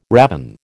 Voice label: "ravin", American

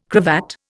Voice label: "cravat", Indian

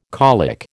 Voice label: "colic", American

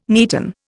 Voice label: "neaten", Indian

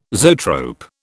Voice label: "zoetrope", British

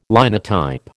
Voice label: "Linotype", American

